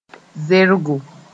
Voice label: "zeorgo", moore

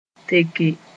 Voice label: "teke", moore

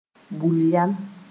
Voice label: "bul-yam", moore

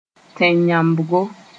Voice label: "tẽn-yãmbgo", Moore

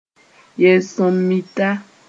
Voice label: "yel-sõm-mita", moore

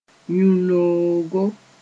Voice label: "yũ-noogo", moore

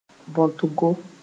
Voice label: "bõtgo", moore

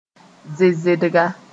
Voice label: "zezedga", moore

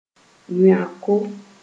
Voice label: "wẽoko", Moore

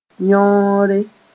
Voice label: "yõore", moore

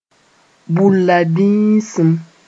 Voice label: "bulla bĩisim", moore

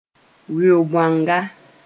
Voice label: "weo-bõanga", moore

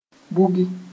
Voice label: "bugi", moore

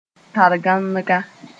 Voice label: "targãnga", moore

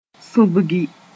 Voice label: "sũbgi", Moore

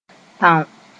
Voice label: "tão", moore; grow

